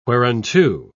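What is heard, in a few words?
"whereunto", British English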